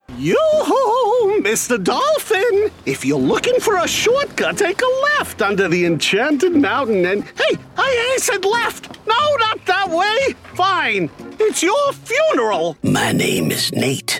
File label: Animation